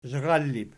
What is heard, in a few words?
"zharallib", Archi